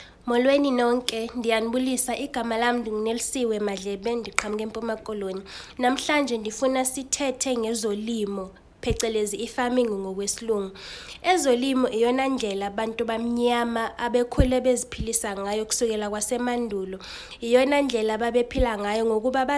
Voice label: Xhosa